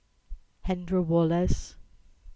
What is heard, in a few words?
Cornish; Catchall